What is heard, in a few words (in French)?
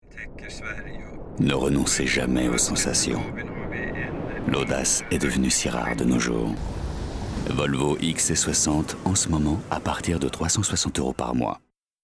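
PUB, voix; VOLVO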